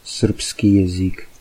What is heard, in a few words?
serbian; српски / srpski